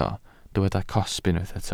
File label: "cosb", welsh